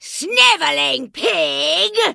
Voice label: Vrykul Female Magic User Greeting